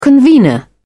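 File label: "convenor", British English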